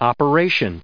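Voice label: "operation", US English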